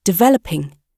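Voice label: "developing", British English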